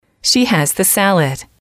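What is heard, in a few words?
"she has the salad", English, female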